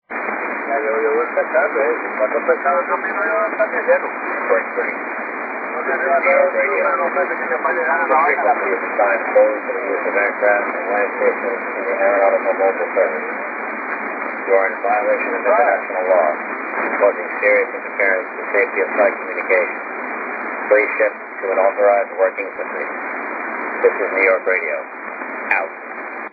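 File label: Stern Warning, HF Radio; New York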